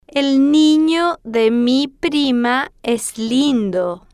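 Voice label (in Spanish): el nino de mi prima es lindo, Spanish, female, slow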